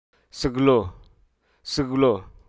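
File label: Hruso Aka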